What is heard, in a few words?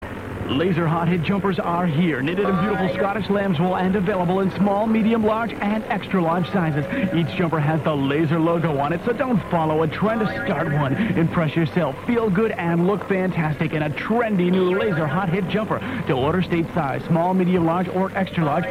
commercial; LHH jumper